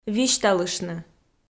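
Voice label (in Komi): "висьталыштны", Komi, female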